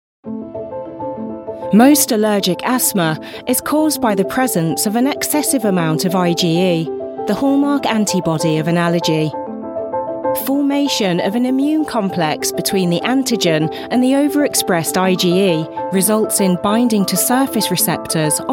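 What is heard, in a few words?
corporate, Black British Female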